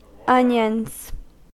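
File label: "onions", American English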